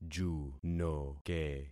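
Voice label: "junoke", concatenated syllables; Affixing experiment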